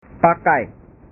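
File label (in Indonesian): "pakai", Indonesian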